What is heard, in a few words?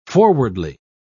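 "forwardly", English, British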